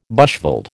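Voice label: "bushveld", American English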